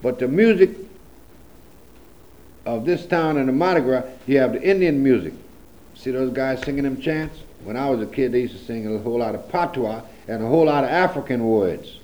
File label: Patois